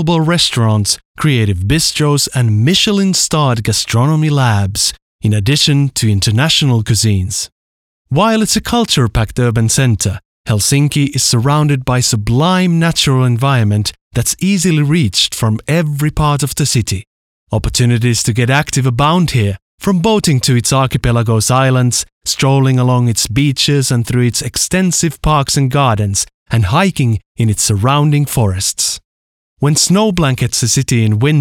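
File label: Finnish Accented English; GoLocalise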